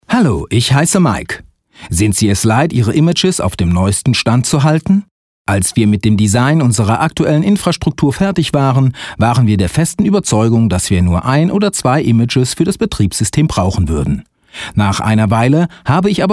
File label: eLearning